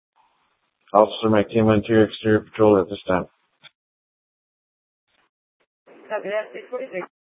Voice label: Court Security Dispatch, scanner; Maricopa County (P25) - Thompson Peak

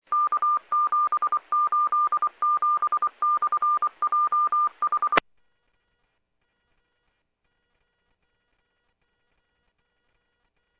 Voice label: Medevac, scanner; PHIAIREVACHelRad

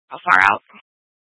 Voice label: Police Dispatch

